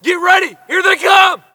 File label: spot; bf3